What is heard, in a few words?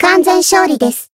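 Voice win, Japanese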